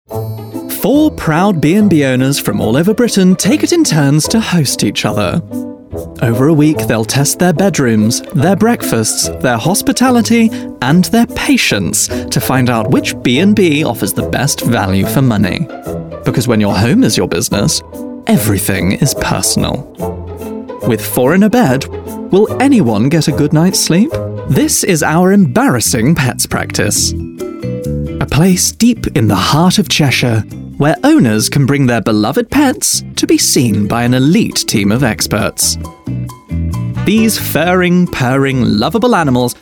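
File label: Documentary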